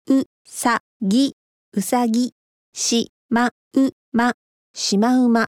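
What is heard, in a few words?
Japanese children learning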